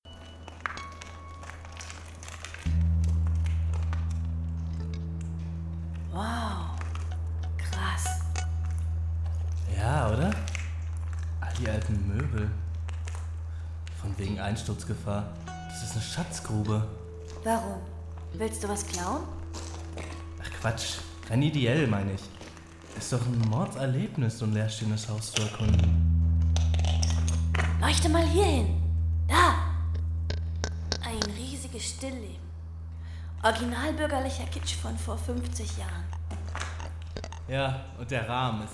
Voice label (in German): jugendliche